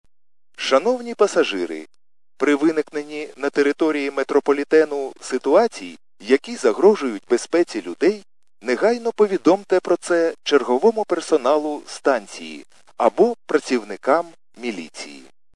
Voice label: khs st male, subway station